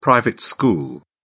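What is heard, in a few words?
"private school", British English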